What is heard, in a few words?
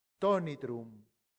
"tonitrum", Latin; santillana